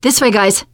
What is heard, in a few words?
follow me, teengirl, voice